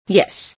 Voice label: "yes", female